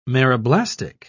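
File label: "meroblastic", British English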